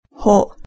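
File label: "ho", Japanese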